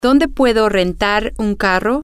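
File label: where can I rent a car, spanish, female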